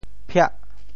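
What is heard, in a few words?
"phiah8", Teochew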